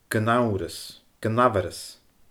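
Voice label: "gun amharas", Scottish Gaelic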